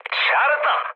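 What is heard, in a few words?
Korean bomb success mood med, speech; War Thunder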